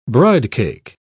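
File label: "bridecake", English, British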